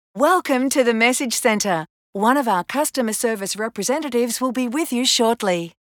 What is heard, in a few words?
WELCOME RELAXED FEMALE; TMC